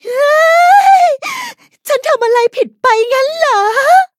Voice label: defeat, th; Cookie Run

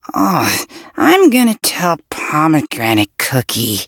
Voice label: defeat, en; cookie run

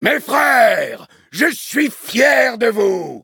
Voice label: victory, fr; Cookie Run

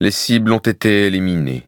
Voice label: victory, fr; Cookie Run

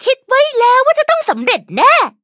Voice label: level up, th; cookie run

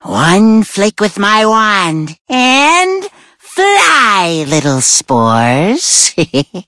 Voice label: loading en

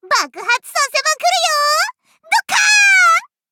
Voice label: levelup ja; Cookie Run

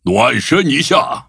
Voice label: loading, zh-hant; cookie run

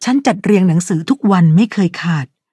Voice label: level up, th; cookierunwiki

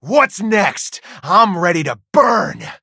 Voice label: levelup, en; Cookie Run

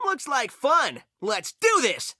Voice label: battle en

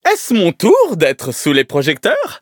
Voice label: levelup fr; cookie run

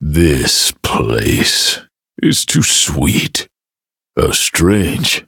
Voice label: touch, en; Cookie Run

